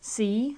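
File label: "see", British English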